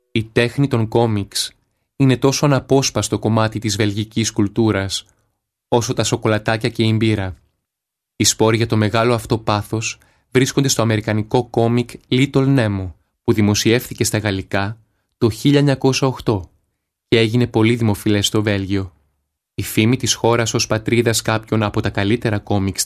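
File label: Greek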